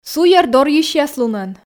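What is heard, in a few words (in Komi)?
суйӧр дорйысьяс лунӧн, Komi, female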